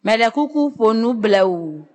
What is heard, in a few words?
"slowly", Ewe